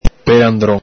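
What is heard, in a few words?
"pelandron", Venetian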